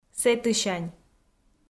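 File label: "сэтысянь", Komi, female; kpv audio dict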